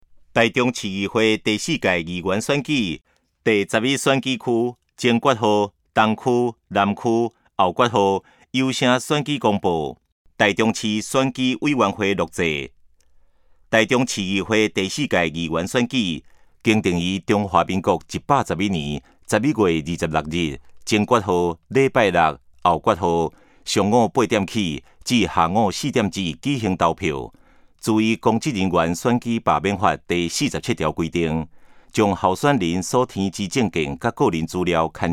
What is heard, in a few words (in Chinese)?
前言, 台語; 臺中市; 有聲公報; 市議員; 第11選區